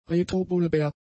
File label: "retrobulbär", German; adjectives